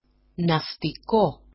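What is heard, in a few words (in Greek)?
"naftiko", Greek; ναυτικό; nautical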